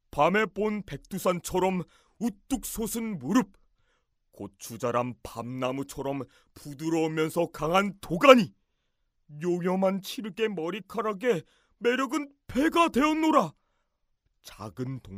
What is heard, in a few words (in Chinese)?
韩语男声 傻乎乎的10多岁少年